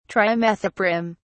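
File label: "trimethoprim", British English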